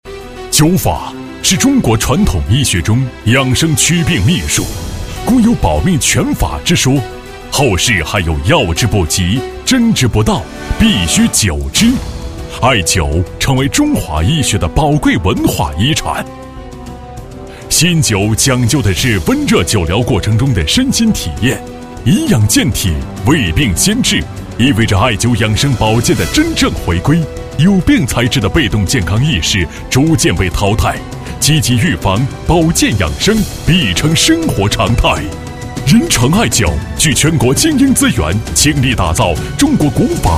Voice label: 宣传片, 招商, 大气; 仁成艾灸形象片创意招商

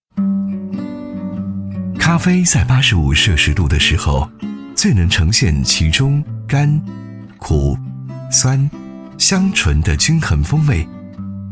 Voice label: 男国 专题 产品 温柔; 咖啡

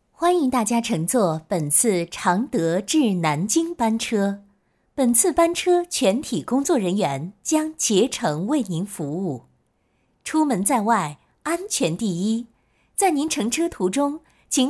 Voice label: 多媒体 广播提示 班车广播提醒

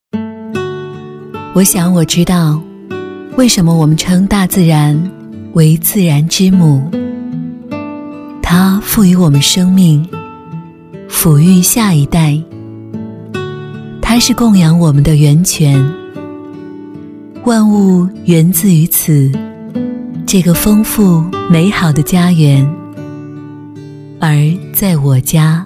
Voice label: 微电影 自然之母台湾腔

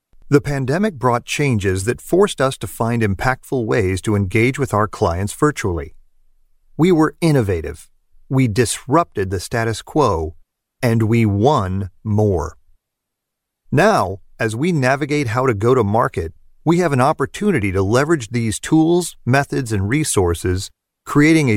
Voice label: 外籍男英 外语 美式英语 干音

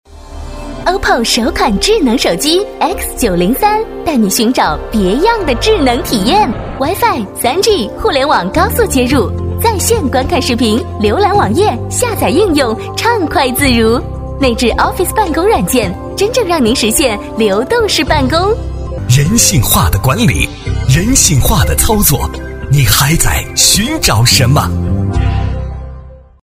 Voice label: 广告 手机通信 手机 时尚; OPPO

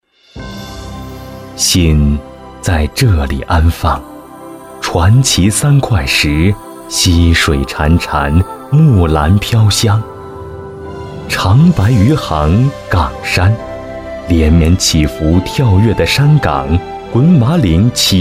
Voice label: 男国 其他 朗诵; 心在这里安放

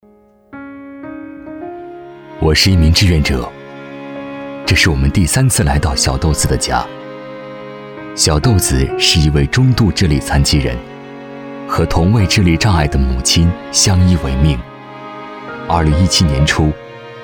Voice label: 男国 旁白 故事; 小豆子故事

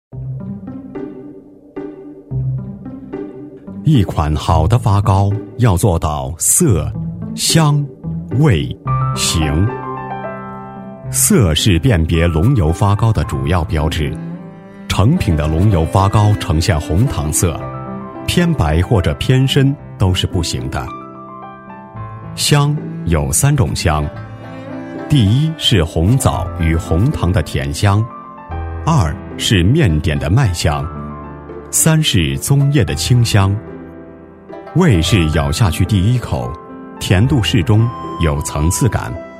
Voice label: 纪录片 舌尖, 男国; 发糕

